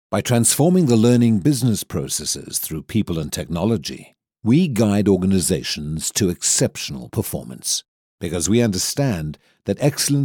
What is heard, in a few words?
uk corporate